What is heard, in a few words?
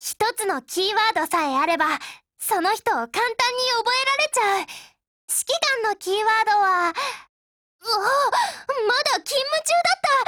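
Japanese; SIG556; DIALOGUE WEDDING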